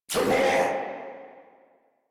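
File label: Reload, English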